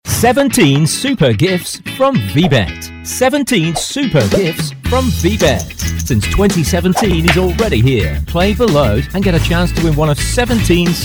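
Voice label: British English, male, commercial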